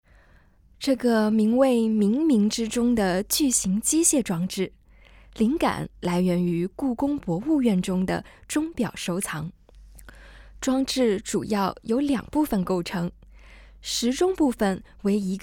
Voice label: Putonghua, audio-guide